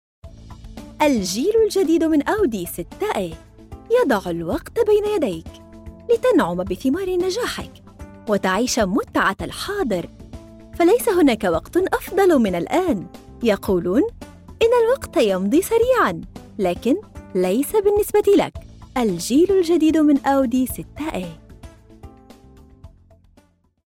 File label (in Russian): Женский арабский